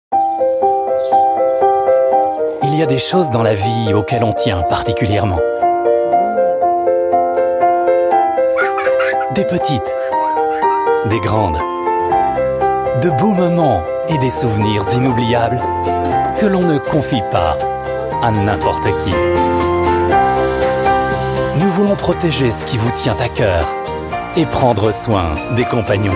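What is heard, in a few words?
French, Freundlich; Fremdtake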